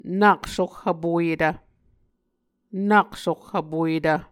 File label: "nak soh vpoyetv", Muscogee